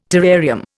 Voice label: "terrarium", Indian